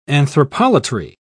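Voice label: "anthropolatry", English, British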